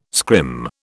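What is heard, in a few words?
"scrim", British English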